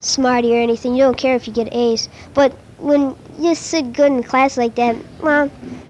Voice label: Conversation with 13 year old white male Detroit Michigan; american english dialect